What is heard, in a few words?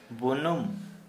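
Santali male white ant hill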